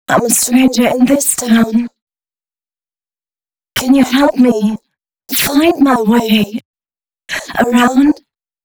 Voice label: female spoken hooks, dance ultra voice

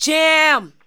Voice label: ADLIBS 90; Ueberschall; Jam Box

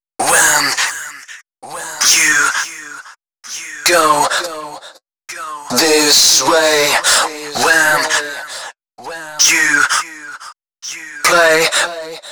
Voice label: male d#130, Dance Ultra Voice hooklines

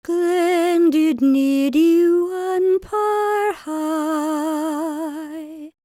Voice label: WELSH F, World Voices

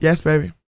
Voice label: DIALOG, FEM SPOKEN; R&B & Dance; ILIO; Vocal Planet

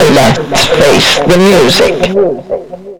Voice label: Lets Face The Music, Vox EFX; Zero-G; Total Drum Bass

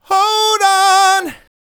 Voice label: "Hold On", vocal phrases; Ultimate Vocals

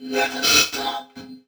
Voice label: "Never Stop", vox; Zero-G; Soundclash Nu Dance Electro; Acidized

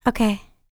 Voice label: L LICKS, FEM SPOKEN, R&B Dance; Spectrasonics Vocal Planet

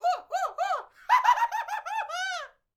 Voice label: MEXICO VOICE, MEXICAN; ILIO; Vocal Planet VOL-5

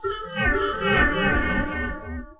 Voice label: Childish Voice; Vocal; Myths of Technology

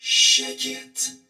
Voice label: "Shake It", vox; Zero-G; Soundclash Nu Dance Electro; Acidized

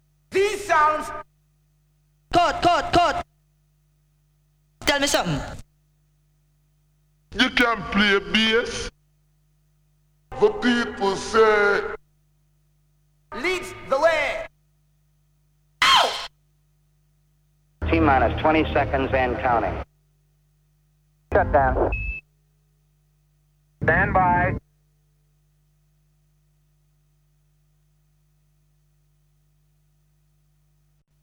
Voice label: Vocal Drops; Kniteforce; 1000 Samples Volume 1

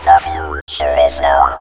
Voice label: FUTURE NOW, Funky Vocals; USB Soundscan vol.18; AKAI; COMPUTER

